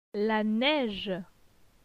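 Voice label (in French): "La neige", French; phonetique; les voyelles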